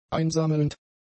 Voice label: "einsammelnd", German; adjectives